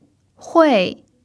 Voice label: "hui4", chinese pinyin